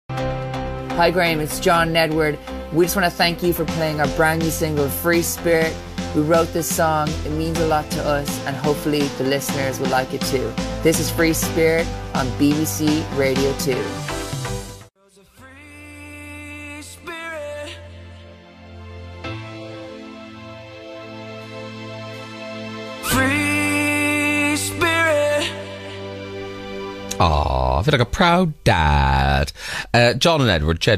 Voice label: Voice Over; Free Spirit; BBCR2; Graham Norton